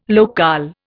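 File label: "locale", Indian English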